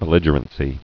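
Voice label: "belligerency", spoken word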